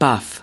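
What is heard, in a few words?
"buff", US English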